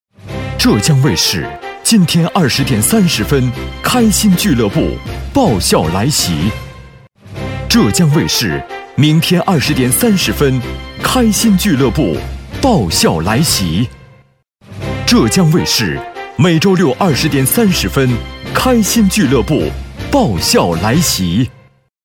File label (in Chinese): 电台栏目; 浙江卫视; 栏目导视